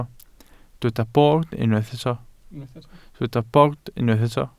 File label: "bord", Welsh